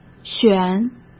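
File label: "选", Mandarin Chinese; chinese-flashcards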